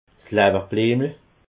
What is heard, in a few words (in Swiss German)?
"lawwerbliemel", alsace